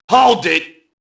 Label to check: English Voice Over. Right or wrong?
Wrong